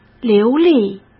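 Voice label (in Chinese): "流利", Mandarin Chinese; chinese flashcards; hsk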